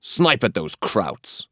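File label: Voices Units Allied Sniper attack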